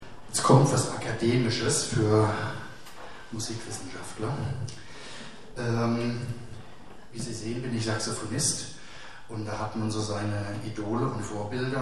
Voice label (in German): Ansage; Konzert 22. Hermeskeiler Kulturherbst (Johanneshaus, Hermeskeil)